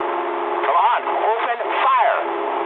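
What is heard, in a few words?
English attack position mood med; War Thunder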